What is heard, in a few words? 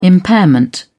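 "impairment", British English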